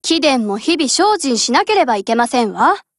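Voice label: Japanese, Voice; GF Alarm; SIG510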